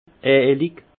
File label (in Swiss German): "eelig", alsace; vin; onctueux